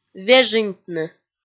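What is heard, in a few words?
"вежыньтны", Komi-Zyrian, female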